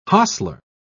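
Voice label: "hostler", British English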